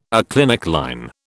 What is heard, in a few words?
"aclinic line", British